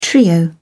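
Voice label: "trio", British English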